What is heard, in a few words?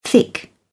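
"thick", British English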